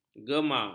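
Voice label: "gema", Malay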